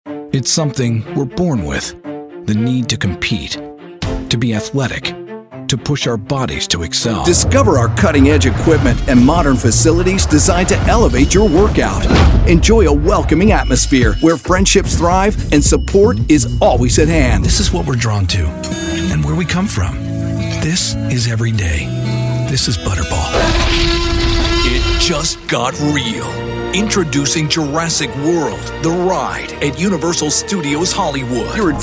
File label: Commercial, voice-over